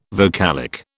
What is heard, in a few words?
"vocalic", British